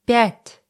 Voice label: russische zahl 20; russische zahl 5; Zahlen auf Russisch

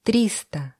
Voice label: russische zahl 20; Zahlen auf Russisch

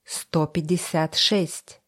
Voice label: russische zahl 20; Zahlen auf Russisch

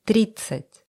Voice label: russische zahl 20; Zahlen auf Russisch

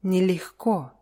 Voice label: "nelegko", russische

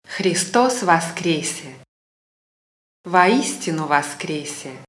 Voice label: frohe ostern russisch ostergruss

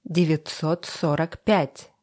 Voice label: russische zahl 20; Zahlen auf Russisch

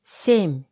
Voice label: russische zahl 20; Zahlen auf Russisch

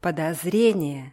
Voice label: "podozrenije", russische

